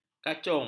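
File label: "kacung", Malay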